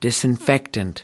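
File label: "disinfectant", US English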